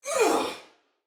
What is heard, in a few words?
Attack Normal, Female, Soldier; Sinecure